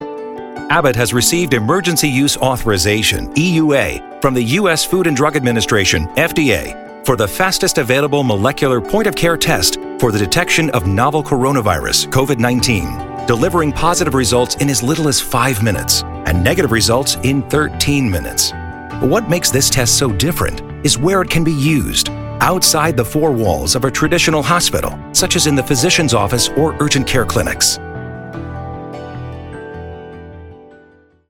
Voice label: E-Learning; Abbott Labs